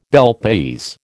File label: "Bel Paese", American